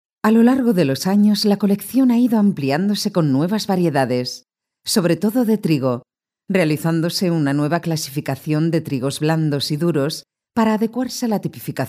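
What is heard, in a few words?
Spanish Spain female narrative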